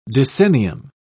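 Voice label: "decennium", English, British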